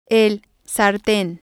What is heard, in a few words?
"frying Pan" Spanish